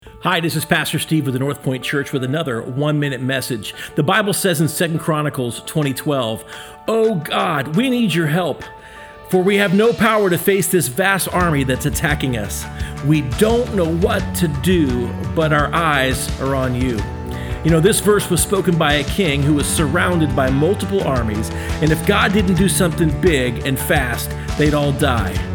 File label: Radio Spot